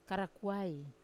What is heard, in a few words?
"karakuai", Wamesa; hard